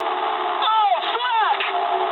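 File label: damaged anti-aircraft mood high, speech English; War Thunder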